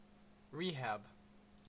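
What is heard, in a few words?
"rehab", Canadian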